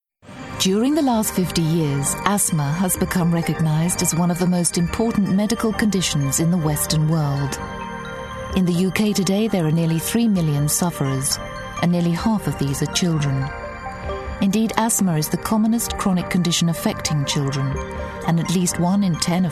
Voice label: english british narration